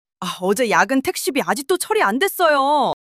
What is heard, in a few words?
anger